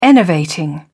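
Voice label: "enervating", British English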